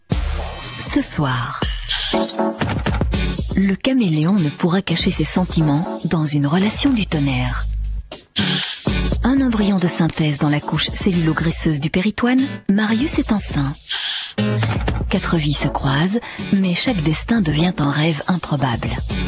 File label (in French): promo soirée